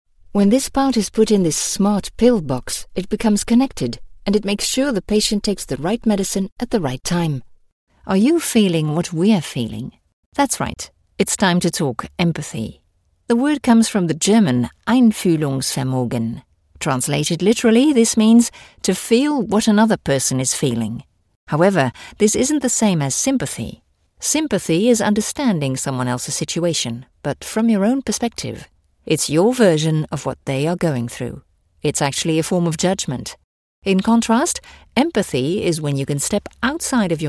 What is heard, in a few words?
English e-learning